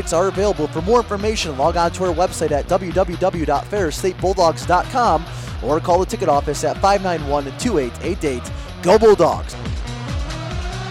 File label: Hockey Playoff Commercial; Ferris State; CCHA Home Playoffs Tickets